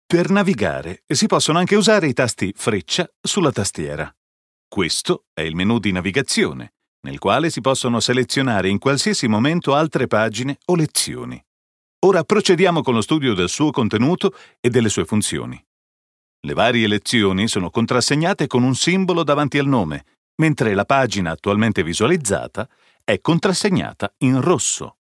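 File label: Italian elearning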